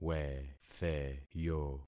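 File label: "wetheyo", concatenated syllables; Affixing experiment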